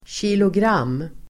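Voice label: "kilogram", Swedish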